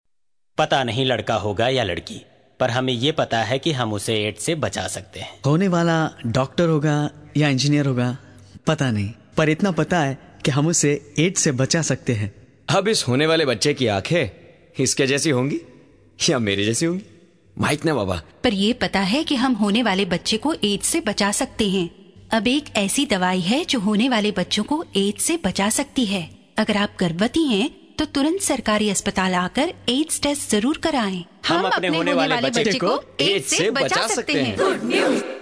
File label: Couple Hindi